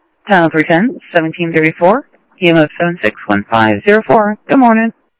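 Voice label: Sheriff Lake Patrol, scanner audio; ThompsonPeakScot; MCSO Lakes 1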